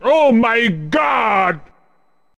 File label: Miss Japanese; Mario Party; Wario